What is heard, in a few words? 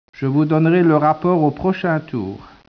speech, French